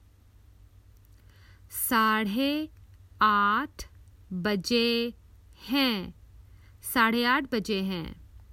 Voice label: Common Hindi Phrases for travel Its half past eight